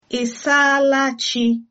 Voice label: good morning, igbo